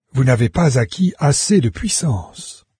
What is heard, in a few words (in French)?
low power, VOICES fr NARRATOR; Fate